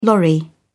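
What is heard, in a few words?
"lorry", British English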